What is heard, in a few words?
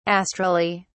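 "astrally", British English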